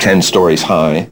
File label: LoFi Phrases, LoFi Vocals; Vengeance Samples